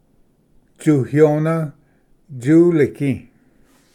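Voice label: "tsuyona tsuligi", Cherokee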